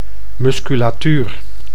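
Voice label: "musculatuur", Dutch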